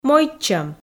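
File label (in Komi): "мойдчӧм", Komi, female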